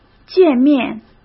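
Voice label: "见面", Mandarin Chinese; chinese-flashcards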